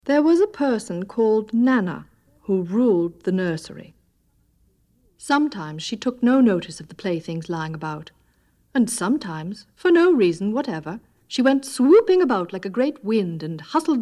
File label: Nana (Narration)